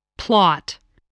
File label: "plot", English